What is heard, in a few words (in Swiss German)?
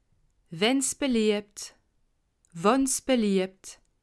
"wenns beliebt", alsace